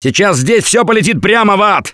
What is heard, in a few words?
reaction negative, russian; Gambler